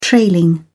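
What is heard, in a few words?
"trailing", British English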